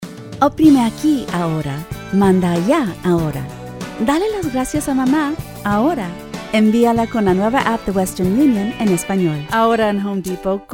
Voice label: Spanish

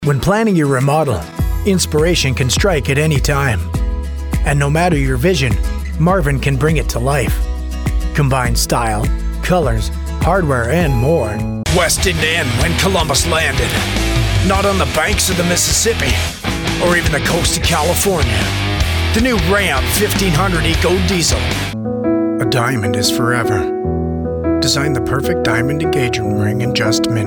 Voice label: commercial male

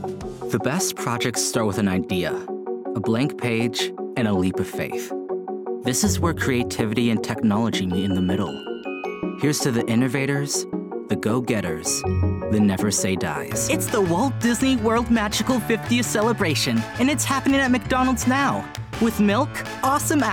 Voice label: Commercial

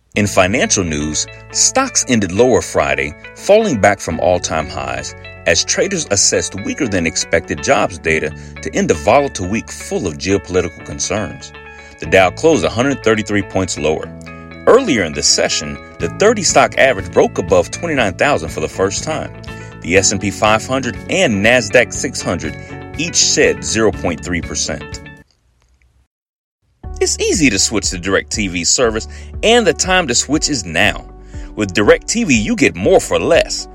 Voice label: voiceover

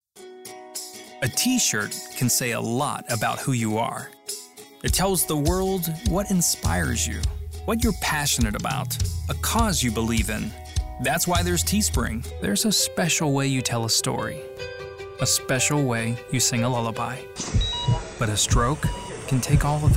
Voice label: voice demo

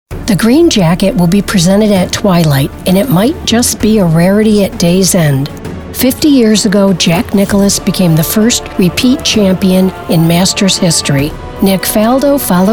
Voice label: announcer

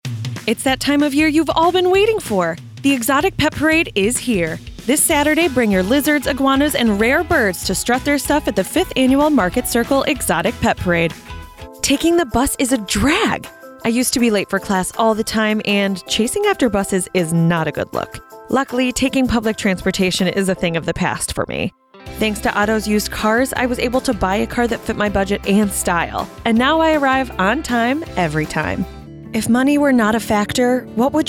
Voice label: voice over

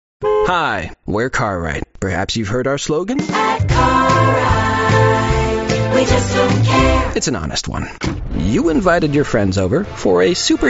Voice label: Commercial

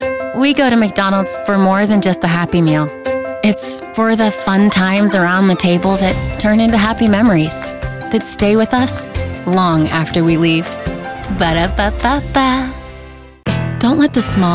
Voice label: Commercial

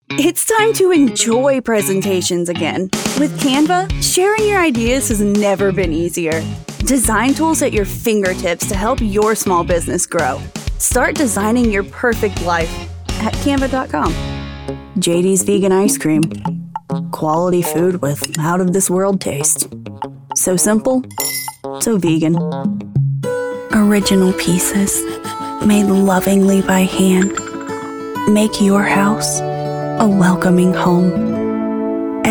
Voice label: COMMERCIAL

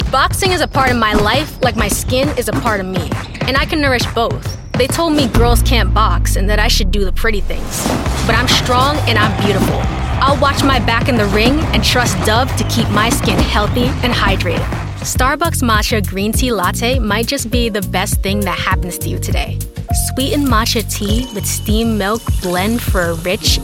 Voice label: Commercial

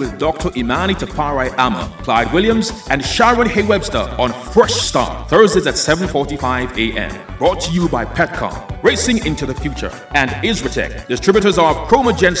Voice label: Energy Watch Promo; Re-voice

